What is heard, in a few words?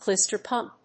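"clyster-pump", English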